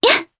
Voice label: Jump, jp; Yuria; kingsraid